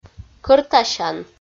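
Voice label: "кӧртасян" Komi, female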